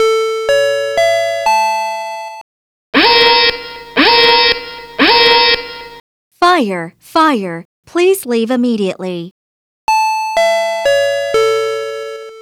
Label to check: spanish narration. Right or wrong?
Wrong